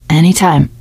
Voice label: you are welcome, teen girl; survivor voice